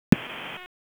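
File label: Police District Dispatch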